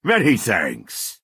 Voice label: Redguard male thank you